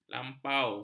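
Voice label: "lampau", Malay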